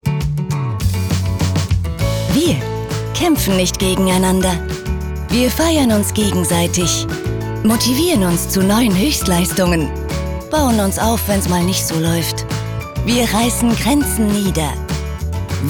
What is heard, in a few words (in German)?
Fremdtake Freundlich